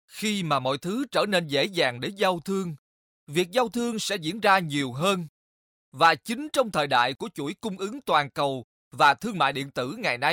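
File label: Vietnamese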